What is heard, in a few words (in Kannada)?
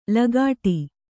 "ಲಗಾಟಿ", Kannada